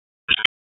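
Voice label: Raleigh Police Northeast District Tactical; wake; p25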